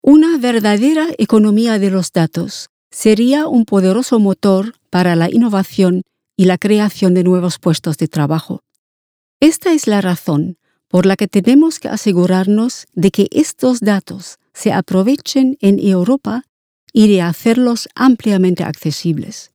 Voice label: Spanisch